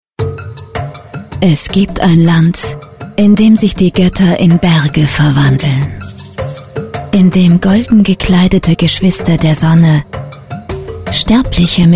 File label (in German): Imagefilm; Peru